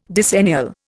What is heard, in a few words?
"decennial", Indian